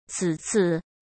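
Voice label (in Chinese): "此次", Mandarin Chinese; chinese flashcards; hsk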